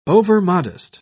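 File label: "overmodest", British English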